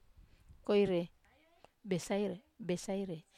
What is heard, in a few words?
"koire besaire", Wamesa